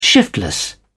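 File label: "shiftless", British English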